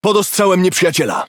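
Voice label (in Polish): Speech Pilots Torpedo Under Attack, Polish; World Of Warships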